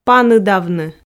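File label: "паныдавны", Komi, female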